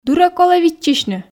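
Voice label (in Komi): "дыр-ӧ колӧ виччысьны", Komi, female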